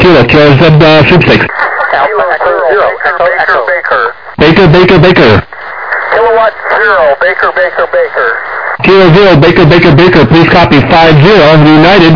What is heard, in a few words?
USB 21MHz